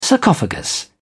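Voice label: "sarcophagus", British English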